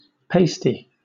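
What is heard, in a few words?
"pasty", British English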